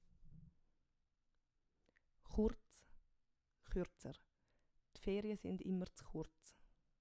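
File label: "churz", Swiss German; Wortschatz Kapitel 1; Kapitel 1 Hauptwortschatz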